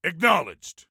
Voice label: Command Acknowledged, Soldier Male